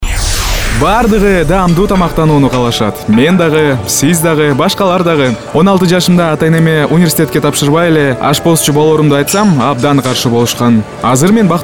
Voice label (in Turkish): kirgizca seslendirme erkek sesi